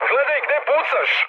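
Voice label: Serbian player friendly fire mood high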